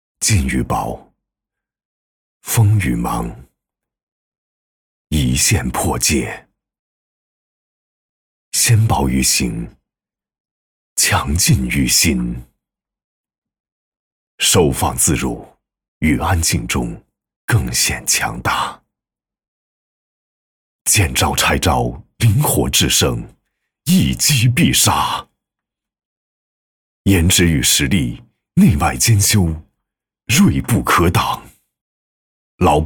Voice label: 男 电器 产品TVC; 老板电器5009s

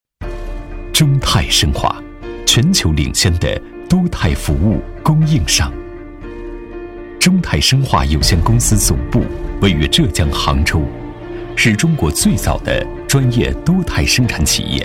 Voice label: 男国 企业宣传片; 中肽生化

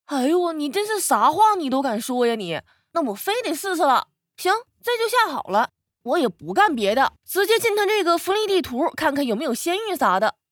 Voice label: 东北方言 女 方言 东北信息流; 方言配音

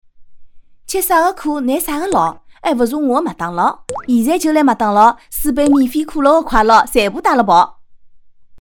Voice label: 上海方言 女 上海方言; 方言配音; 麦当劳

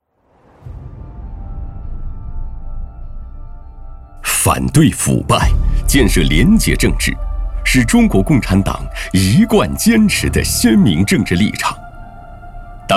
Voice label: 男 警示 反对腐败; 反腐样音

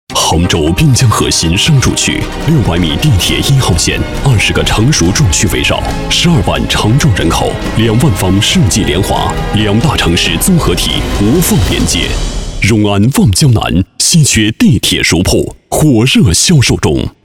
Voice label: 男国 品质地产 广告

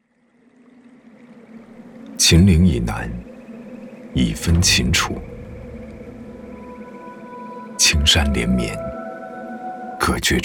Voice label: 男 形象片; 走心企业形象片样音; 中国鸿-瑞生活

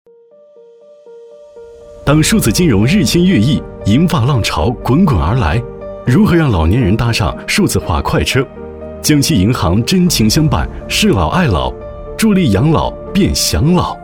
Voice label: 男, 银行宣传; 银行宣传样音; 江西银行

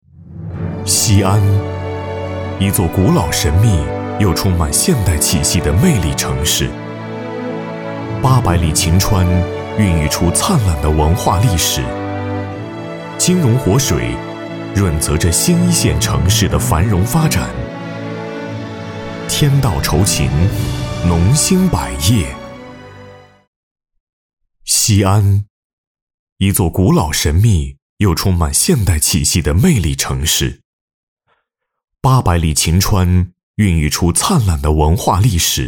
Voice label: 男, 银行宣传; 银行宣传样音; 秦农银行

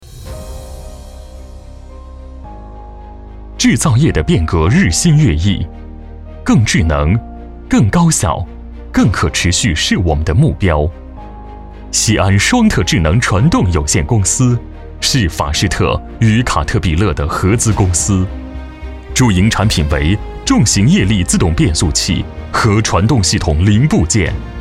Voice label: 男 大音 科技; 西安双特智能传动有限公司

